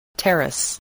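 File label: "terrace", English word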